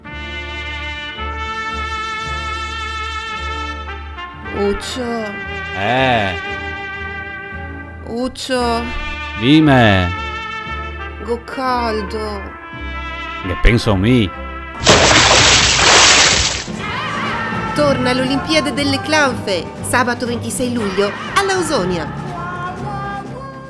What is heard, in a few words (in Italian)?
radio spot; olimpiade clanfe; radio fragola